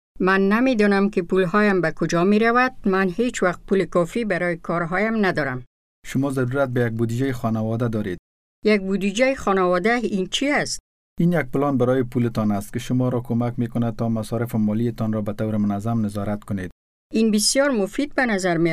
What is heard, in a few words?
household budgets dari; money management kit